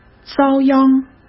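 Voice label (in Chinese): "遭殃", Mandarin Chinese; chinese flashcards; hsk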